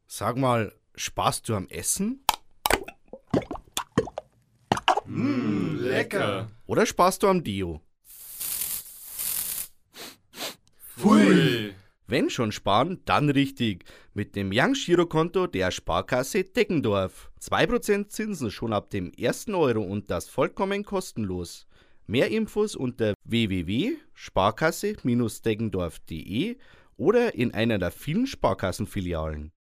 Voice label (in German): Werbung Sparkasse